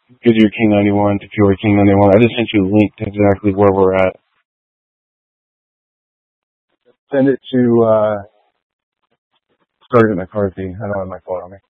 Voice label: Mutual Aid-Intersystem Interop B, scanner